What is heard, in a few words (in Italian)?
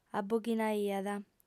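"aboghinaiat", lingua sarda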